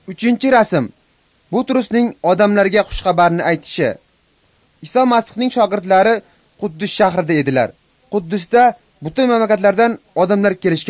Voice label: uzbek northern; acts of the holy spirit